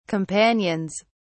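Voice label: "companions", British English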